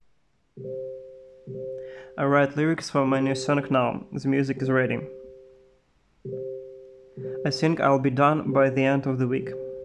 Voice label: noisy reference